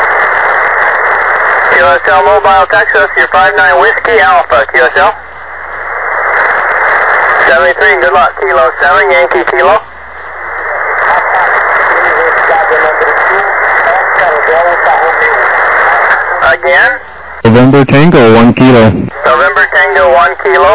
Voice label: USB 28MHz; ARRL10M